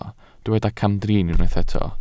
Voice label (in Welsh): "camdrin", Welsh